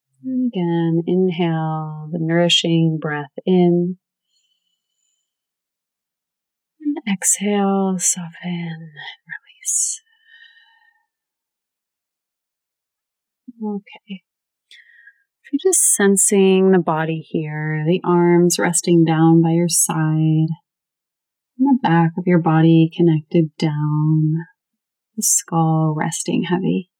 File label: GUIDED BREATHING Deep Restful Breathing Meditation